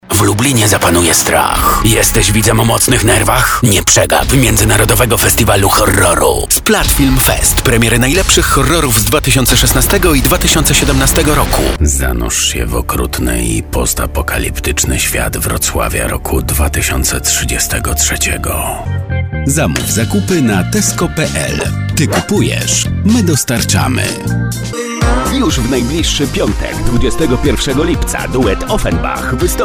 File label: COMMERCIALS